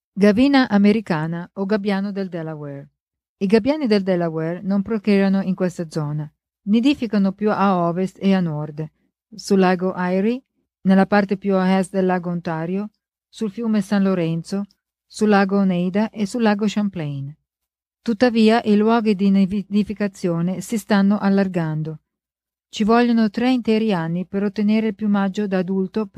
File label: Ring Gulls Italian